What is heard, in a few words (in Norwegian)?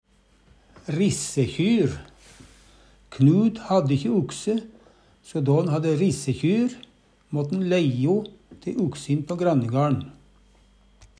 "rissekjyr", Numedalsmål